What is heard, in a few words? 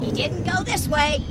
grunt; h3dialogue; staten